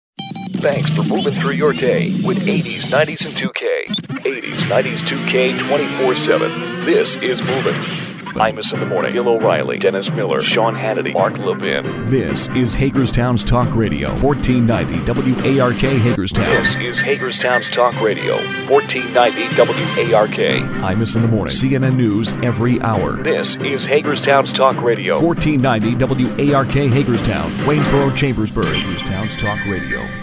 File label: Imaging